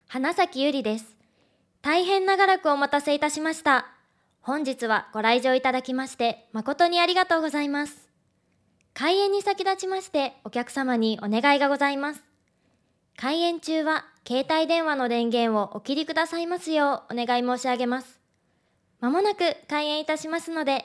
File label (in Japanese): 場内アナウンス; ナレーター｜MC